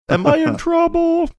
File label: saying am i in trouble funny